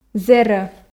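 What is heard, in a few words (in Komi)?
"зэрӧ", Komi, female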